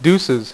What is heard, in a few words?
"deuces", dealer's speech; PowerPOKER Demo